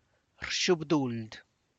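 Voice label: tlacochahuaya, Zapotec; (Spanish) confesar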